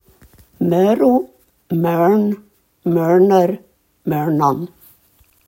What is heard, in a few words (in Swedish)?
"mörô", daladialekt; gagnsmal